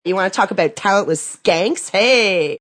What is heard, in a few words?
"Talentless", Female; Attitude; VoiceBox